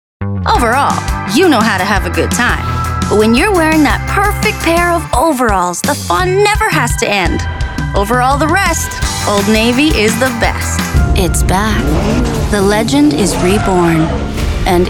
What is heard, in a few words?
Commercial